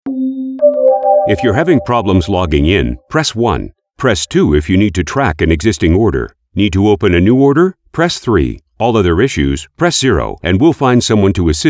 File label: Telephone IVR Voice Over